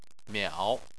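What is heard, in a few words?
"miao", Chinese, third tone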